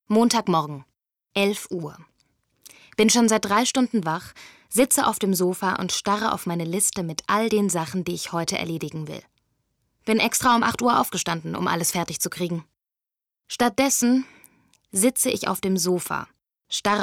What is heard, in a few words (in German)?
Erzaehlung